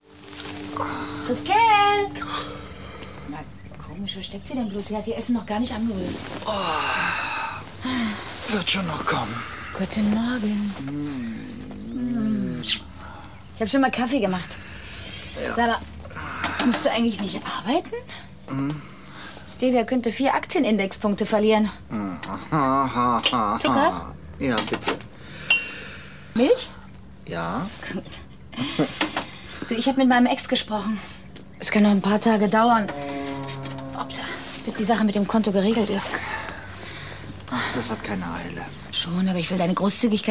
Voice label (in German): Hoerspiel; Sterben oder Toeten